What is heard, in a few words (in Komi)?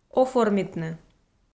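"оформитны", Komi, female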